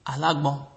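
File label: "alagbon", Nigerian Pidgin, male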